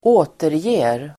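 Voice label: "återger", Swedish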